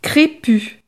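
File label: "crepu", French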